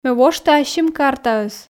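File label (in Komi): ме вошті ассьым картаӧс, Komi, female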